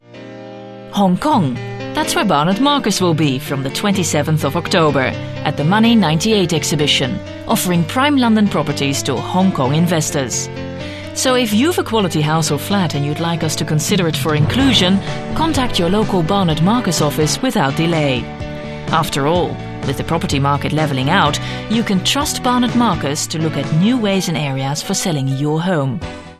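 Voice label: Dutch, English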